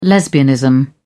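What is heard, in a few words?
"lesbianism", British English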